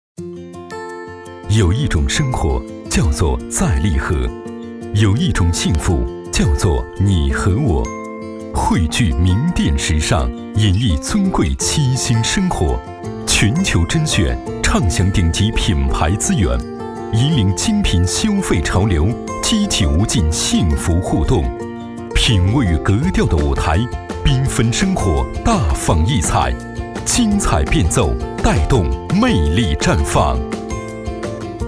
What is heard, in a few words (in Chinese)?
男, 广告, 独白; 利和